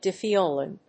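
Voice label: "dithiolane", English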